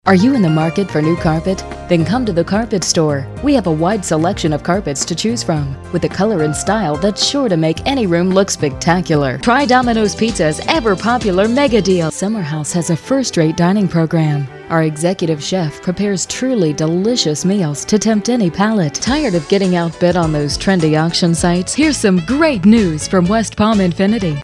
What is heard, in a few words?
onhold; usa